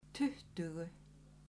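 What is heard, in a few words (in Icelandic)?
"tuttugu", Icelandic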